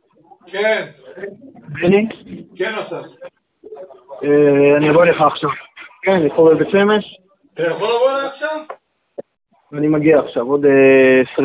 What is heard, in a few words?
Call recording